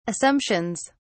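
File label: "assumptions", British English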